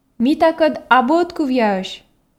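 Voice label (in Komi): ме такӧд абу ӧткывъяӧсь, Komi, female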